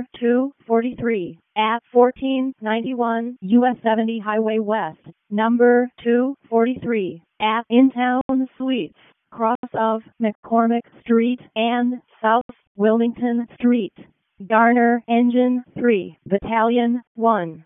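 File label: Fire Department Alert, p25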